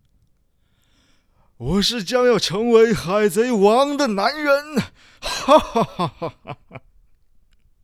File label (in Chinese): 中文 日漫; 海贼王